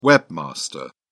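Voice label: "webmaster", British English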